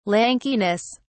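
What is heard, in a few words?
"lankiness", British English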